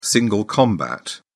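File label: "single combat", British English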